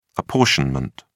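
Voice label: "apportionment", British English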